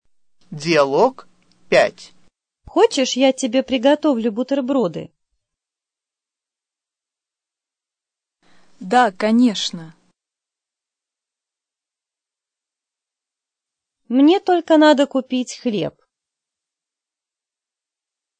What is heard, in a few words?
dialog, russian